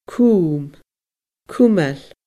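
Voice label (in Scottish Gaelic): "cum cumail", Scottish Gaelic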